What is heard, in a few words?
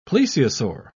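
"plesiosaur", British English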